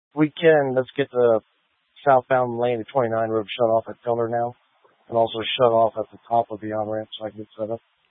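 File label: Incident Tactical, scanner audio recording; Incident Tac 3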